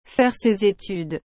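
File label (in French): "faire ses etudes", French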